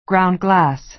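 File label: "ground glass", American English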